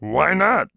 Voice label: Warcry; warcraft3; Peon